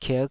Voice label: "kik5", taiwanese syllables